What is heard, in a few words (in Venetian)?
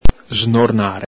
"snornare", Veneto; vb.intr.; ENG: whine, whimper; ITA: piagnucolare; el Galepin